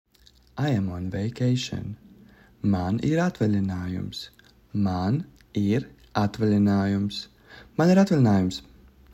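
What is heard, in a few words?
I am on vacation, Latvian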